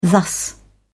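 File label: "thus", British English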